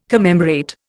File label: "commemorate", Indian